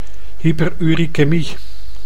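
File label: "hyperurikemie", Dutch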